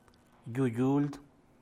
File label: "yuu yuuld", San Mateo Macuilxochitl Zapotec, dusty land; tierra polvosa